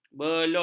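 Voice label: "belut", Malay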